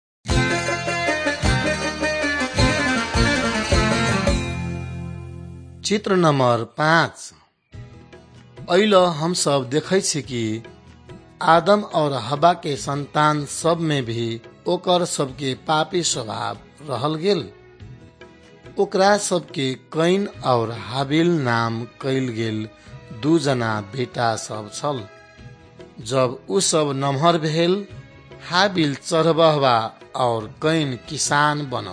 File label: maithili thetiya